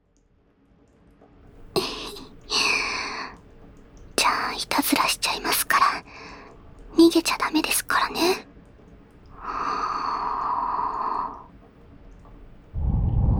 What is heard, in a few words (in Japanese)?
膝枕で囁きと耳に息吹きかけ（左右）